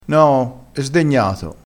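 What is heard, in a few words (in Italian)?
"sdegnato", dialettale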